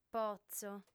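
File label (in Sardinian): "potzo", Sardinian, female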